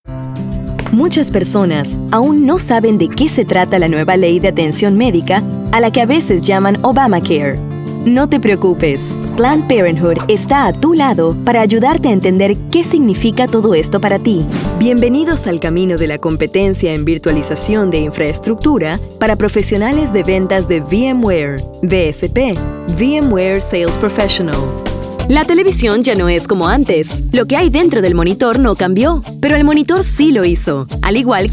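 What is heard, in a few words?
Latin American Spanish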